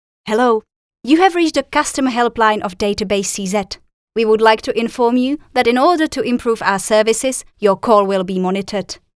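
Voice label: English, female; Hlásky pro IVR menu ve více jazycích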